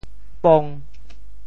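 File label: "pong5", Teochew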